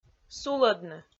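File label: "сулӧдны", Komi, female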